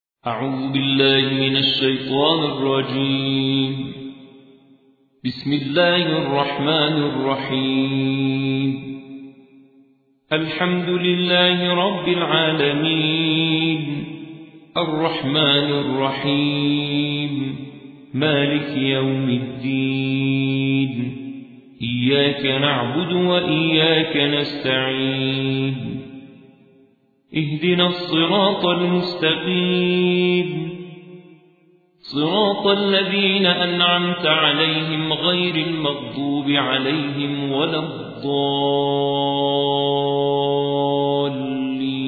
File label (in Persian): ترتیل سوره حمد